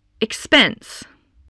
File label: "expense", voice